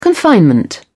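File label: "confinement", British English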